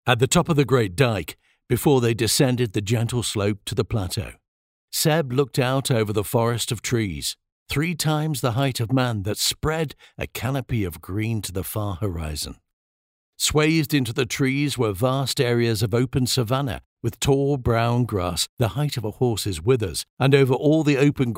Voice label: narration, SOUTH AFRICA